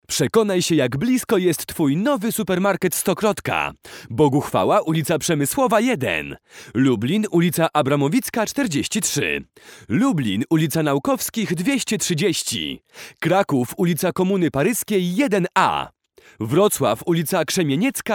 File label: male polish voice over talent sales